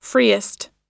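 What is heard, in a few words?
"freest", US English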